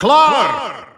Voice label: narration game set NL

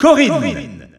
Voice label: French Announcer; Corrin; SSBU

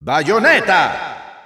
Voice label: Spanish Announcer; Bayonetta; SSBU

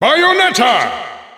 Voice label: German Announcer; Bayonetta; SSBU

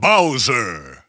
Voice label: Bowser English Announcer; SSBB

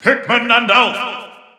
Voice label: Japanese Announcer; Alph; SSB4; SSBU

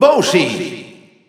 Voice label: Spanish EU Announcer; Bowser Jr.; SSBU

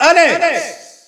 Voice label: Alex French Announcer; SSBU

